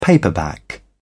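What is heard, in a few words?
"paperback", British English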